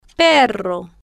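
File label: "perro", Spanish, female, slow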